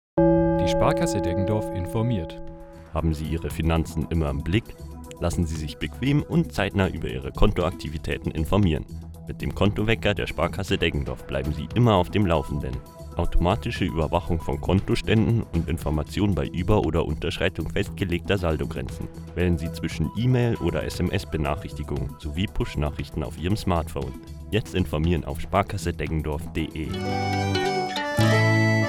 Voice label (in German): Werbung Sparkasse